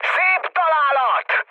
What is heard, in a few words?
bomb success, mood high, speech hun; War Thunder